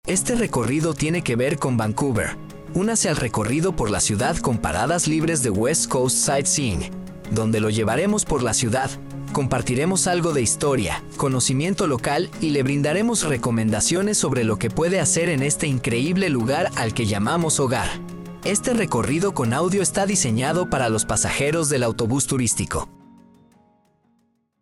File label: Spanish